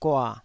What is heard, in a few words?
"gua3", taiwanese